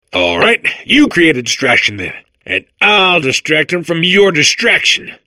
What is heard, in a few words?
babble; portal2